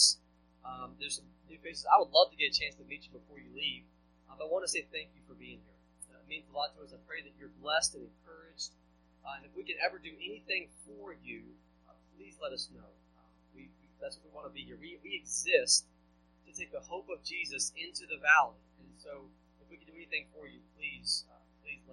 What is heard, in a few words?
sermon